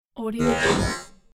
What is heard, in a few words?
robot commander voice